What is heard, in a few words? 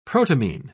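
"protamine", British English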